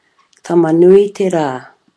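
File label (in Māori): "tamanui te ra", Māori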